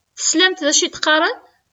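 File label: Kabyle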